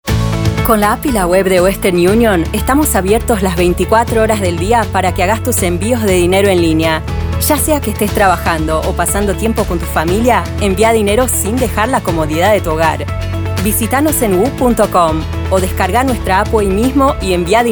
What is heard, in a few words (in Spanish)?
Español Argentina